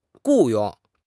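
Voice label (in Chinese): "雇佣", Chinese; HSK6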